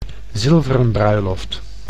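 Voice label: "zilveren bruiloft", Dutch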